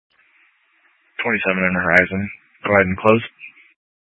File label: Tactical Incidents, scanner